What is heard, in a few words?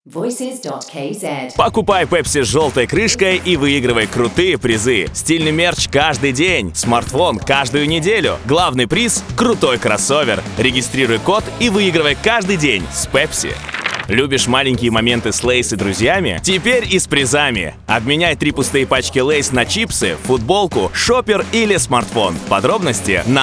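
Russian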